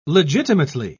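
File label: "legitimately", British English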